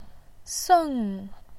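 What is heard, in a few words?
"seng", Mandarin Chinese, fourth tone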